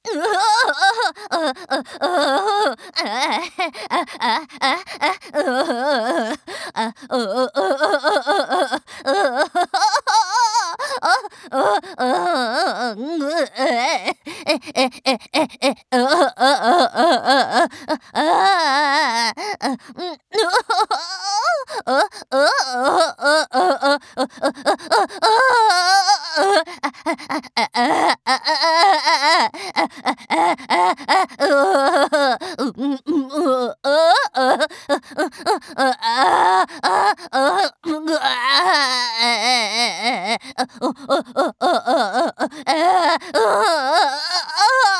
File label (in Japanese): ループ音声